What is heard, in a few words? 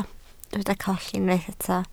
"colli", Welsh